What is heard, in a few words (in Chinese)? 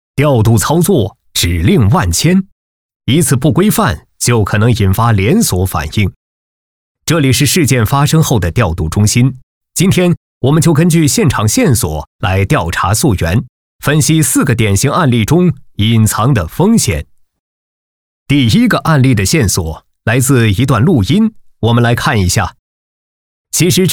男 课件类